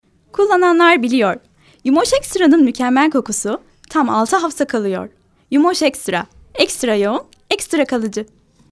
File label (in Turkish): reklam